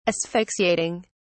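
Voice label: "asphyxiating", English, British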